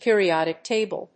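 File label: "periodic table", English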